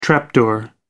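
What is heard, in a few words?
"trap door", British English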